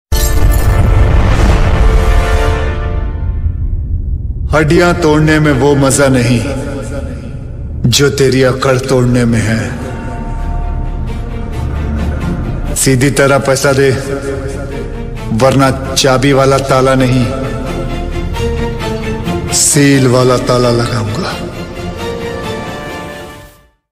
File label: attitude dialogue status movie dialogue status dialogue ringtone; akshay kumar; gabbar